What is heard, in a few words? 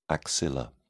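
"axilla", British English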